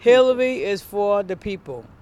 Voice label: AFRICAN AMERICAN WOMAN OUTSIDE POLLING PLACE IN EAST PROVIDENCE SAYS; RI PRIMARY